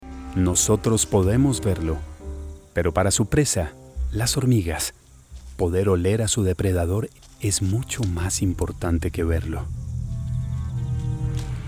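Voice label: voice-over Narración Narration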